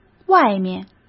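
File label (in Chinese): "外面", Mandarin Chinese; chinese flashcards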